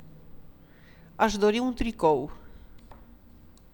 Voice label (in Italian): shopping, rumeno; frasi utili